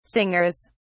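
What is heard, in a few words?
"singers", British English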